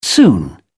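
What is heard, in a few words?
"soon", British English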